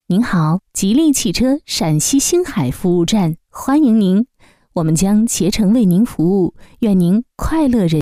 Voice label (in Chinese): 女 彩铃, 汽车彩铃; 吉利汽车